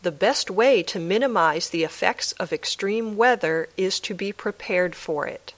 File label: Dictation